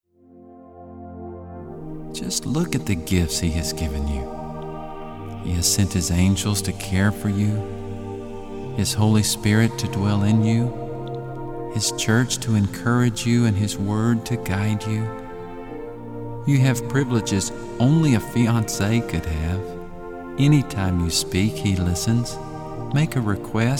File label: Narration